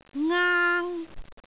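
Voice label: "aang1", Cantonese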